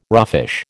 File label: "roughish", American